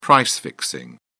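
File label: "price-fixing", British English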